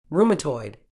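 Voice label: "rheumatoid", medical English, British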